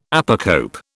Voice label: "apocope", British